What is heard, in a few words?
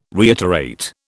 "reiterate", British